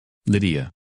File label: "Lydia", women names; Английские имена: женские